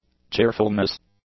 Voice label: "cheerfulness", English